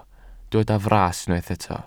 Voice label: "fras", Welsh